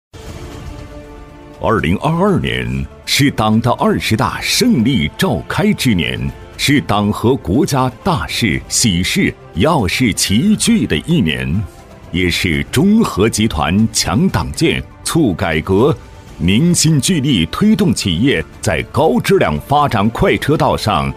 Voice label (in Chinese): 男 企业专题 大气昂扬; 山东区域; 男37-大气稳重